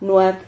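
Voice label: "nuad", Spanish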